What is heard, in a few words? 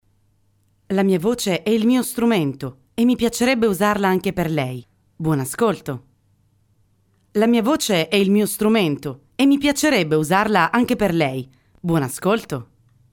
ITALIAN